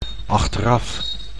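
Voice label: "achteraf", Dutch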